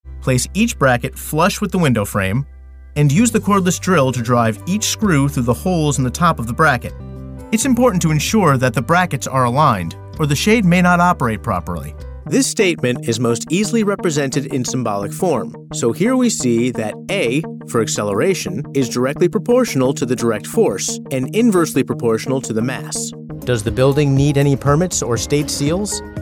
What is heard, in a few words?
elearning